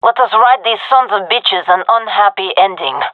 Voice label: round start, gendermerie fem; vo